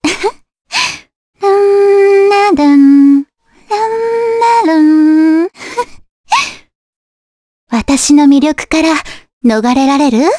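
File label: vox Japanese; Cassandra; kingsraid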